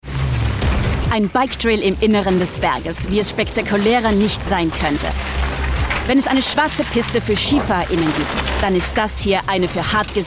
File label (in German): Doku Off action